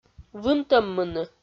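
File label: "вынтӧммыны", Komi, female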